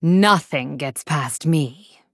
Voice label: Female Blood Elf Emote